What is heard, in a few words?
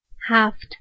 "haft" seven, Persian